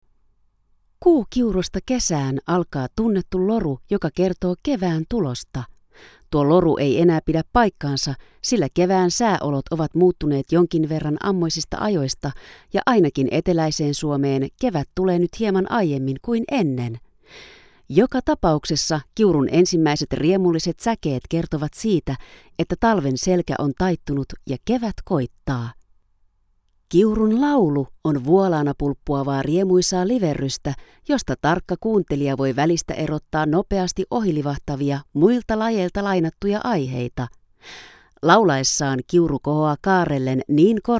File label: Finnish Female; Multilingual Voiceover